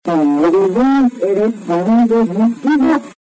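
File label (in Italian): "timori", Italian; Timori vincere: cento dischi dà!; Luca, giovane figlio suicida di una lettrice, ed Elisa, una dolce bambina morta a soli sei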